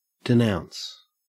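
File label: "denounce", Australian English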